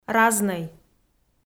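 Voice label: "разнӧй", Komi, female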